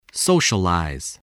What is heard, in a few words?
"socialize", English voice